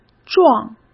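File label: "壮", Mandarin Chinese; chinese flashcards; hsk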